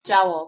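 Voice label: "jowl", English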